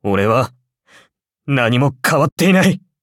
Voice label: defeat ja